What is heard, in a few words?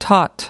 "tot", us